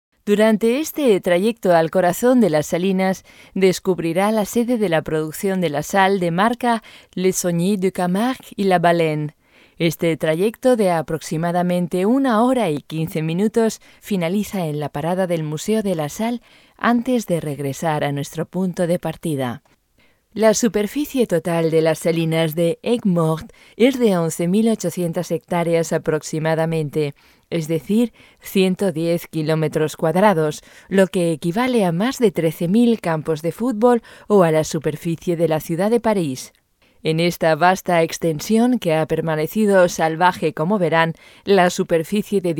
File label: es books; Camargue